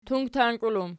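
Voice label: "tungtank" plural, Archi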